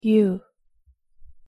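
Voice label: "ㅠ", Korean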